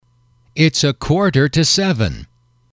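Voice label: "its a quarter to seven", English, male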